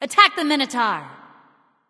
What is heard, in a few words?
vox attack m; smite; nuwa; voicepack